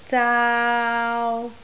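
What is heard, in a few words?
"zaau3", Cantonese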